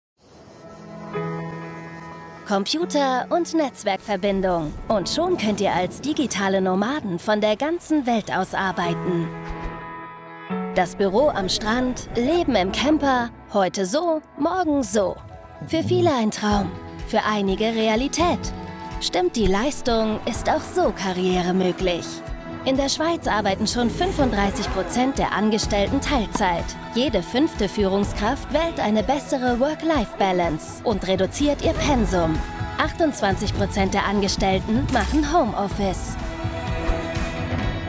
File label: voiceover; toyota